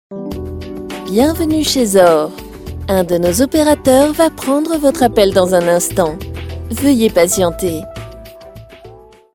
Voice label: fr